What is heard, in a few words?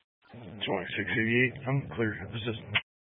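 Sheriff Dispatch, Scanner audio recording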